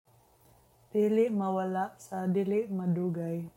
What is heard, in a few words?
Cebuano; won’t go away anytime soon - dili mawala sa dili madugay